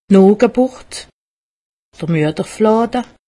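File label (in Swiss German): "nochgeburt", Haut Rhin; medecine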